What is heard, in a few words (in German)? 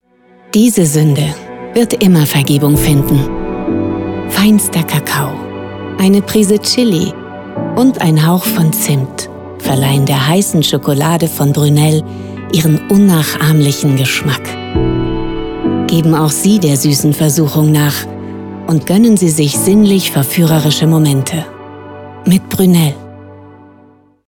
Werbung Sprecherin; Brunel